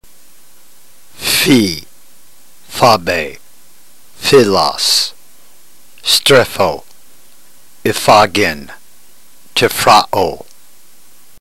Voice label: drill, ntgreek

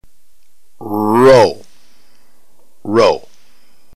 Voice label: "rho", Greek

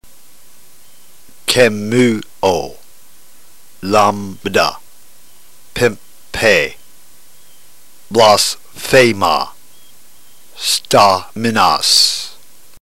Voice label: drill, ntgreek

